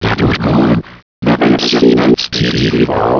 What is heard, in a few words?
gibberish